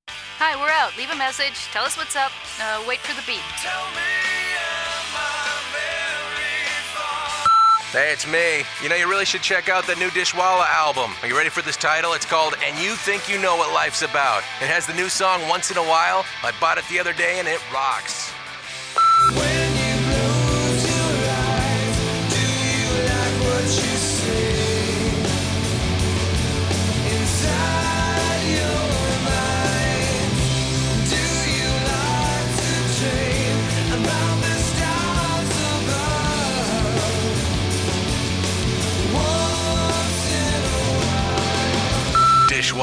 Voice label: Radio Commercials; 1998